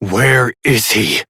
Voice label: Battle Adult; Warframe; Duviri Quest; Duviri Paradox Quest